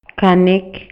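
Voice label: Maya, male; INALI; MayaYuc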